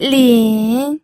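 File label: "lin", Mandarin Chinese, third tone; pinyin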